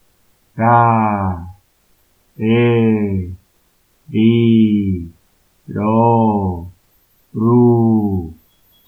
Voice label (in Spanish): ra re ri ro ru suave; consonantes r d n c qu; suave modificada